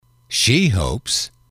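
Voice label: "she hopes", English, male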